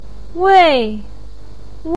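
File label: "wei4", Mandarin Chinese, pinyin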